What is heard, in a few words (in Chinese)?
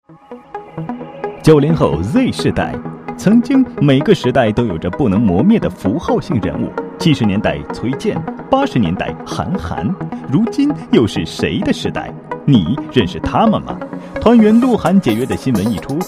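【广告】男-活泼; 九零后Z世代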